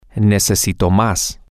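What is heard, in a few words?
I need more, Spanish, male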